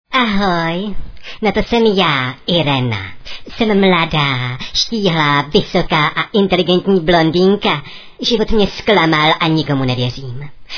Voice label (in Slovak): sms hlásky cz